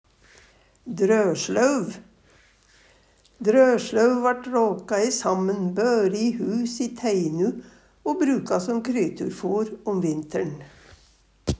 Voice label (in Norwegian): "droslauv", Numedalsmål; drøslauv og råkalauv er mykje det same. Drøslauve har drust. Drøslauve driv og drys.